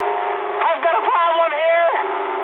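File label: aircraft damaged mood high, speech English; War Thunder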